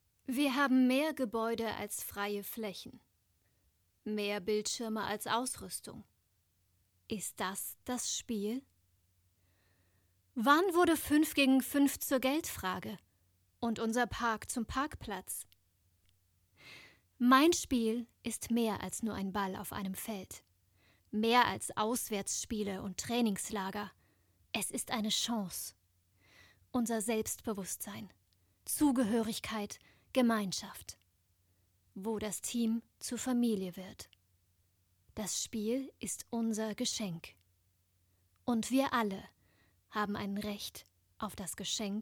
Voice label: imagefilm; das spiel

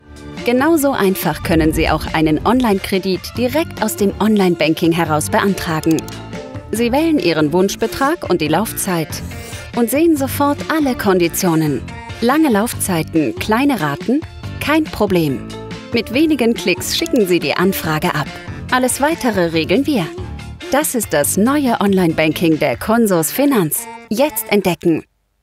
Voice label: erklaervideo informativ freundlichkurz; consorsfinanz; Sprecher in Baden-Baden, Darmstadt, Düsseldorf, Frankfurt a. M., Freiburg, Heidelberg

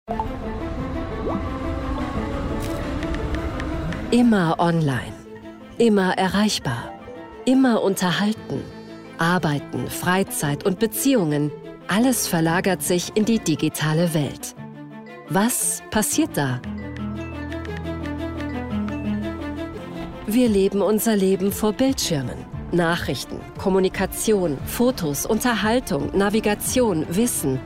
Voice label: offkommentar narrativ warm; zdf; offline zurueck zur besinnung

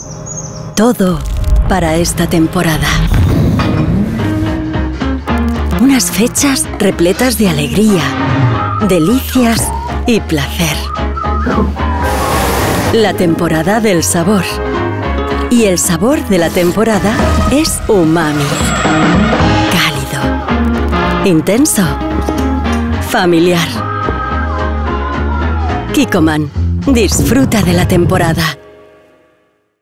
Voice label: werbung spanisch

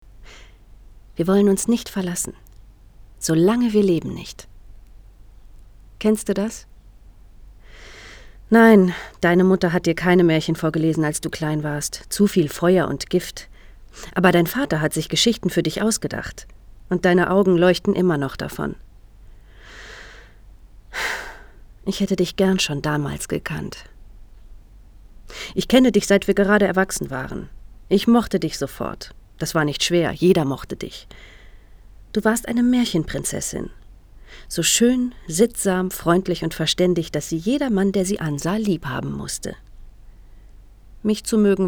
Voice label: hoerbuch

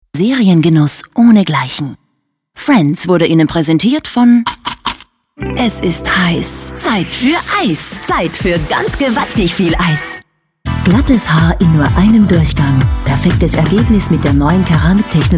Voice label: medley spots

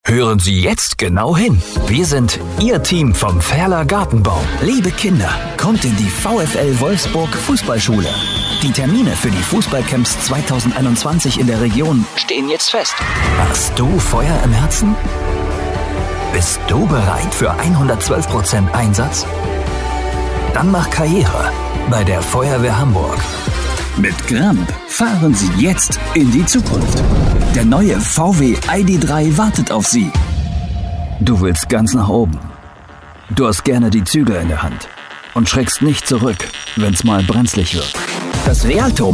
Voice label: sprecherdemo werbung funk spot collage

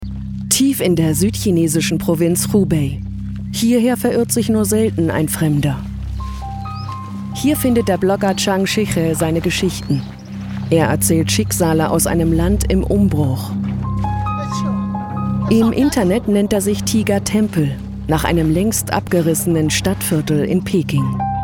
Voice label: doku; arte china - reich ohne mitte

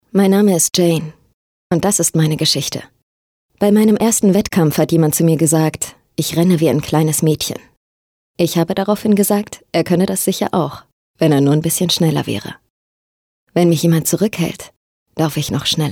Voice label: werbung authentisch

